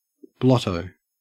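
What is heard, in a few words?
"blotto", English, Australian accent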